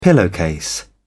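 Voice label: "pillowcase", British English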